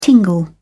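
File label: "tingle", British English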